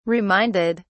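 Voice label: "reminded", British English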